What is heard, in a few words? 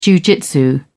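"jiujitsu", British English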